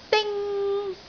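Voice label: "ding1", Cantonese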